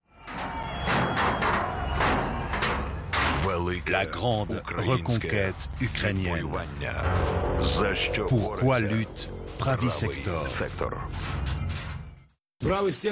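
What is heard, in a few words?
French documentary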